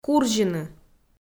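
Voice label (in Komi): "курзьыны", Komi-Zyrian, female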